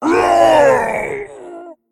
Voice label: Male Dragonkin Death